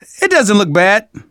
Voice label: revive friend, survivor voice manager; Left 4 Dead 2; left4dead2 dlc2